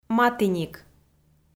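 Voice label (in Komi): "матіник", Komi-Zyrian, female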